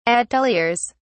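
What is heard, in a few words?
"ateliers", English, uk British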